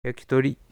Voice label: "yakitori", Japanese

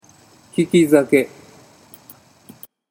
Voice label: "kikizake", Japanese

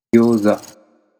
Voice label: "gyouza", Japanese

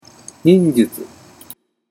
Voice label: "ninjutsu", Japanese

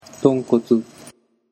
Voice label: "tonkotsu", Japanese

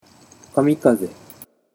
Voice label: "kamikaze", Japanese

